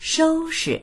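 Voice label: "shou1shi", Mandarin Chinese; shōushi; 收拾; 片づける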